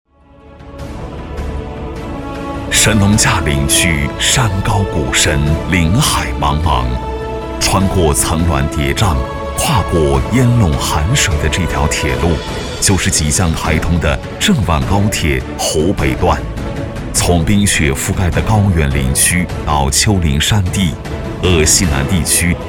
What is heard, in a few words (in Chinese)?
男 专题; 郑万高铁